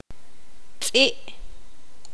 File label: poqomam; perro